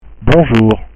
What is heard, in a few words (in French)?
"bonjour", French